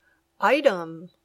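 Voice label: "item", English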